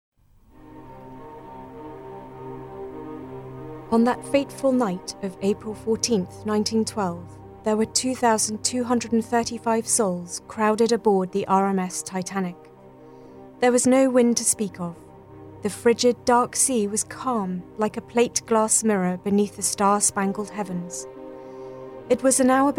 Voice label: documentary